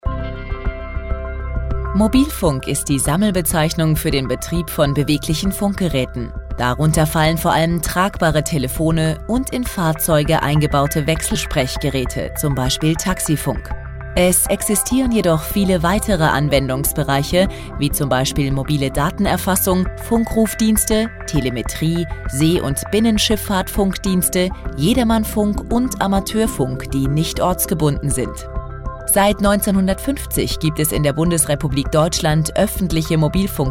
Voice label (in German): Kommentar, Neutral